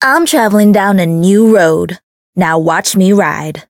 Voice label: Voice chant; kikyou